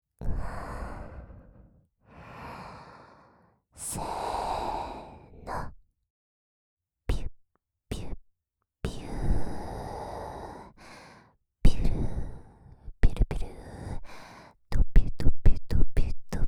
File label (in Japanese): いっぱいぴゅっぴゅ連呼ボイス♪